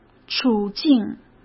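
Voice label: "处境", Mandarin Chinese; chinese flashcards; hsk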